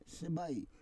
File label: "sebai", Roon